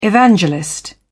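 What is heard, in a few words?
"evangelist", British English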